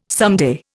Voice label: "someday", Indian

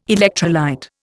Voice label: "electrolyte", Indian

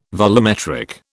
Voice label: "volumetric", British

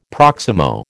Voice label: "proximo", American

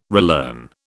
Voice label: "relearn", British

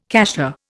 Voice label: "cassia", Indian

